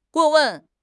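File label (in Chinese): "过问", Chinese; HSK6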